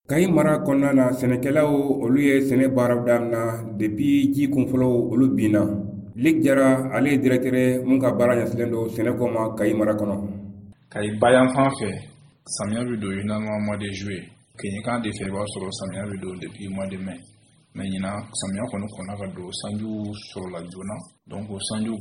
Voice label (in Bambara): magazine bamanankan